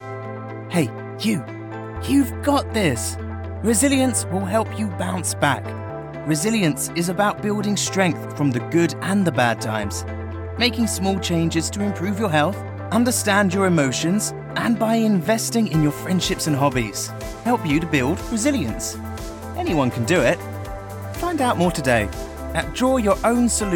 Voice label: Radio Ad; YP Spotify Radio Ad